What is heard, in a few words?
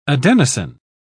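"adenosine", British English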